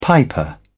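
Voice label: "piper", British English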